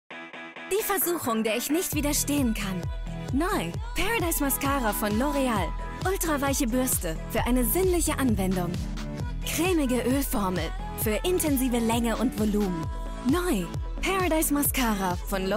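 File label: German TVC; LOreal Paradise Mascara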